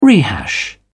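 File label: "rehash", British English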